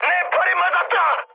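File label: Korean damaged pilot mood high; speech; War Thunder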